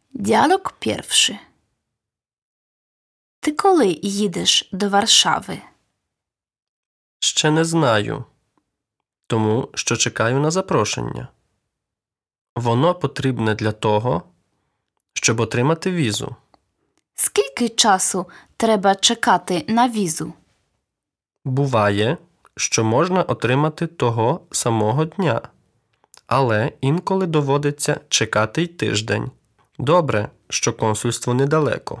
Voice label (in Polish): Lekcja Dialog